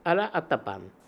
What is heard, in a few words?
Alla attapan, Beelzebub pronounciations; Fourth Way